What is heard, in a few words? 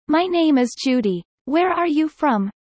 fast